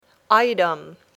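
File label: "item", English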